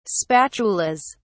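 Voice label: "spatulas", British English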